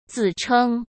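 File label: "自称", Mandarin Chinese; hsk; chinese-flashcards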